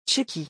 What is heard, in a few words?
"Tchéquie", French